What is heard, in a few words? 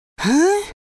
"huh", surprise